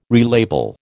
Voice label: "relabel", American

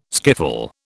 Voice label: "skiffle", British

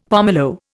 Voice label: "pomelo", Indian; Dictionary

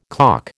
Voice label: "calk", American

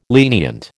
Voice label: "lenient", American

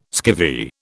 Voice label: "skivvy", British

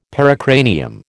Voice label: "pericranium", American

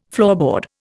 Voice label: "floorboard", Indian

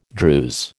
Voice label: "Druse", American

